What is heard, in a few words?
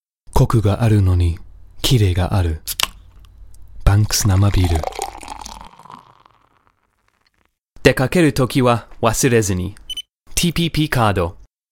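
Japanese, UK, male; narrator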